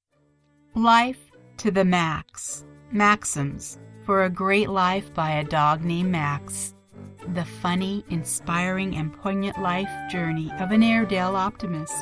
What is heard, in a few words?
Book Trailer